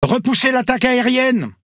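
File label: French Speech Quick Commands Enemy Planes; World Of Warships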